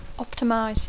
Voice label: "optimize", English; Dacco